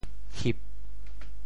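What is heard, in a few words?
"hip4", Teochew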